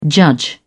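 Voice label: "judge", British English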